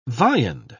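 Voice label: "viand", British English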